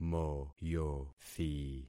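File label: "moyothi", concatenated syllables; Affixing experiment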